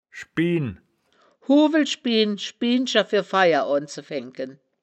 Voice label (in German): "schbien", wittlicher woerterbuch; das wittlicher woerterbuch; woerterbuch vertonung